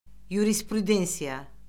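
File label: "yurisprudensiya", Azerbaijani; Orfoqrafiya Lüğəti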